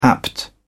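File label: "apt", British English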